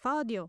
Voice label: female